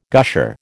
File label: "gusher", American